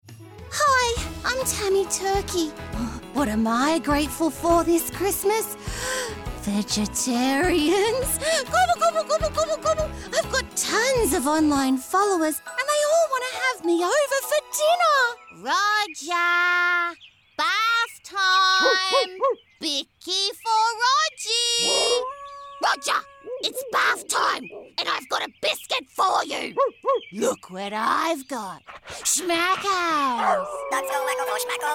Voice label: Senior Character

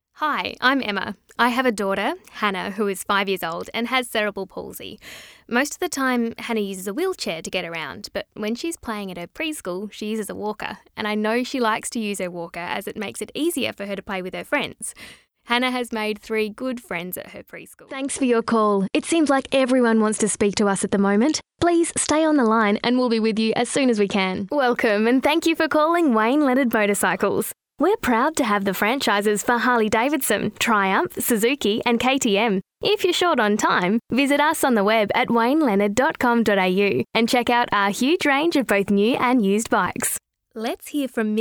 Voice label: eLearning Corporate

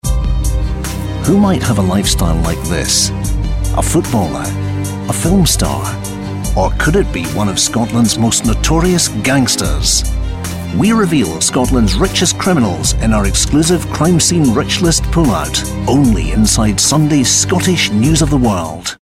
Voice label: TV Commercial

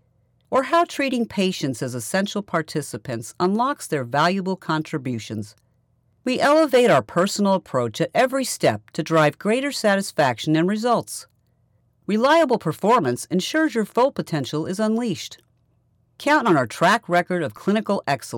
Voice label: Scientific Video Voice Over

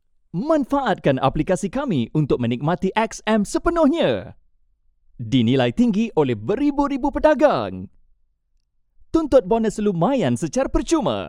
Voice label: APP Campaign; Malay

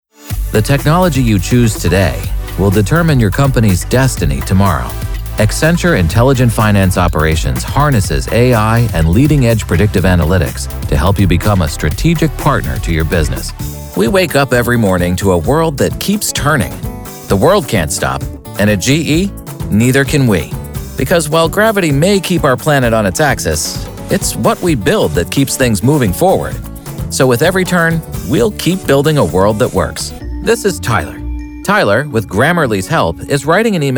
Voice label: Corporate Narration

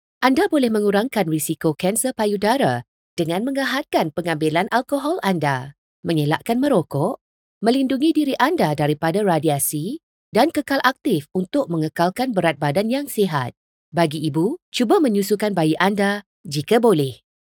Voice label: Animation Video Malay

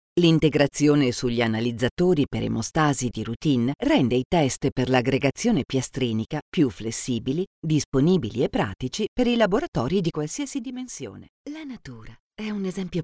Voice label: Explainer video

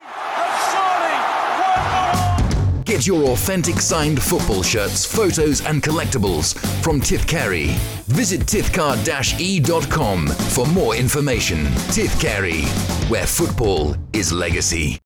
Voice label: Radio commercial Football merchandise commercial

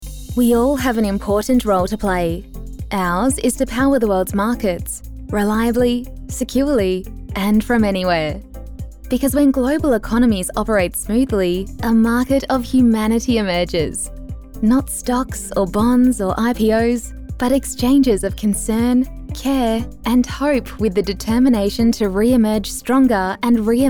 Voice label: Corporate Music No Slate

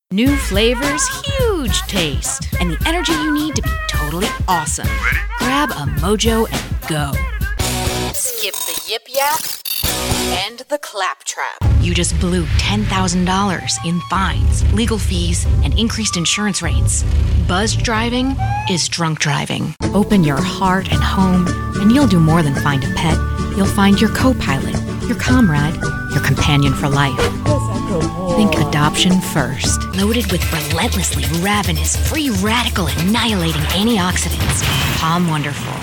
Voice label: commercial no slate

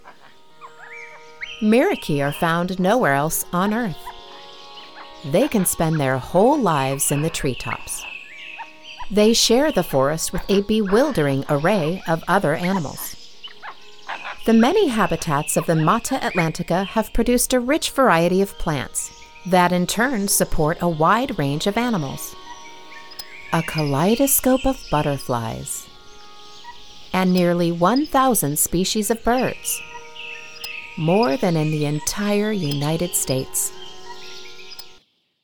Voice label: Docu

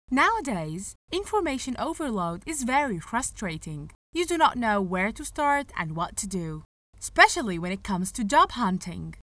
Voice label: American ad

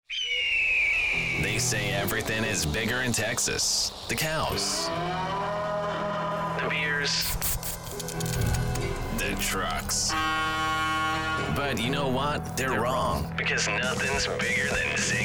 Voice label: USA

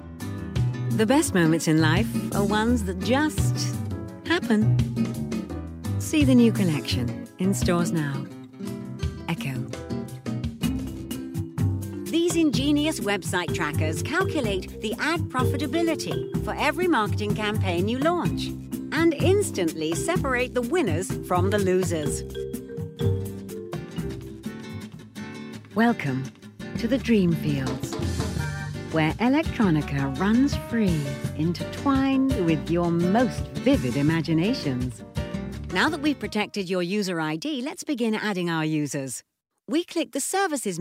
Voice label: Soft Sell

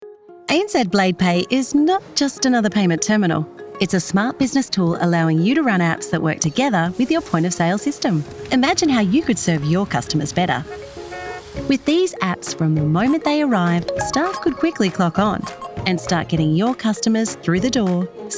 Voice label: corporate

